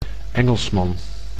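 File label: "Engelsman", Dutch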